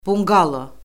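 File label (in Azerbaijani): "bunqalo", Azerbaijani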